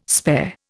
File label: "spare", Indian